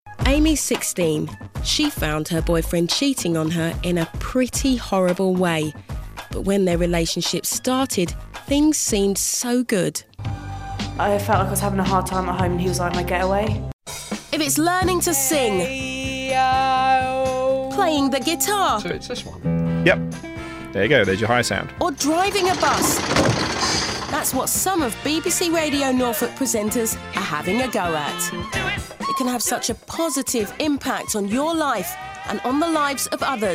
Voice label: english female voices british accent

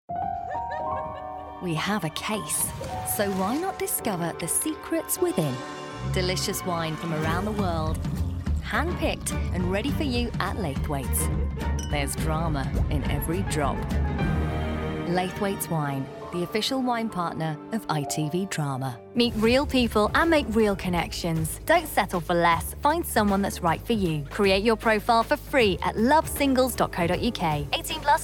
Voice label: commercial, english female voices british accent

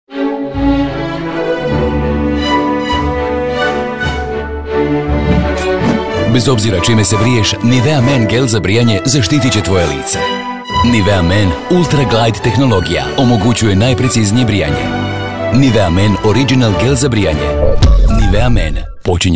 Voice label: crotian voices

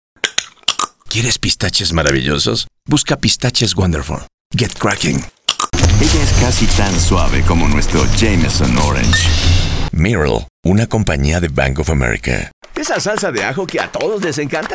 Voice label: commercial, spanish male voices

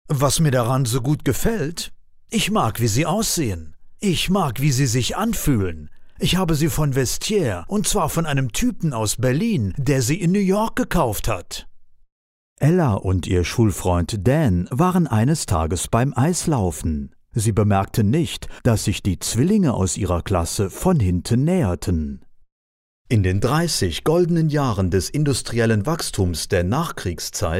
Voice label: german, german male voices

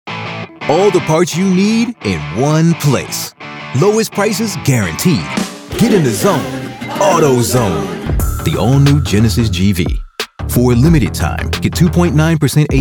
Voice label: commercial, english male voices american accent

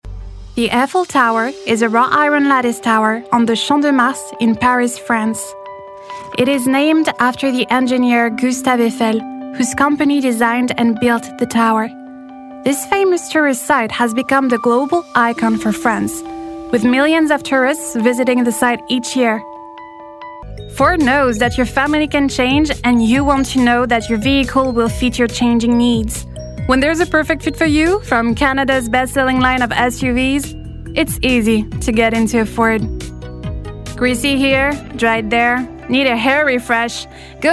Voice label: english, english female voices french accent

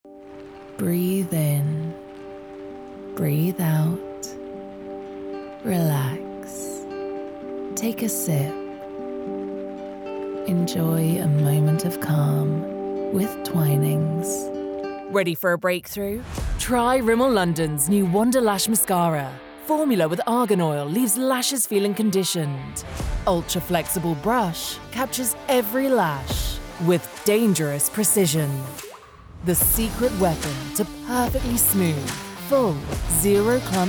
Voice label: commercial, english female voices british accent

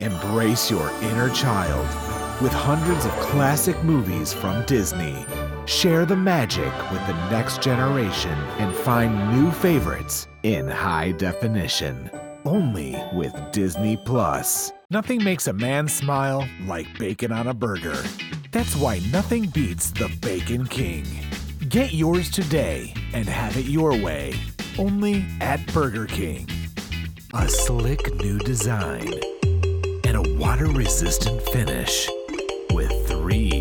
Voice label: commercial, english male voices american accent

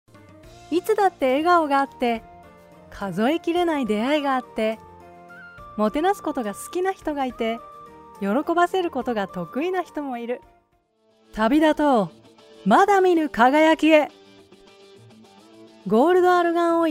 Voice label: japanese female voices

